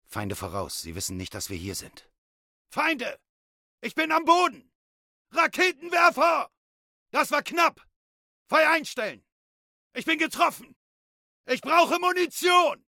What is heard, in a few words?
Shouts; Game; Späher